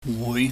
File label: "wui", pingyam